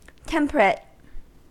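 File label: "temperate", US English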